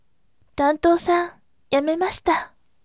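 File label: voice, gentle; tantousan yamemashita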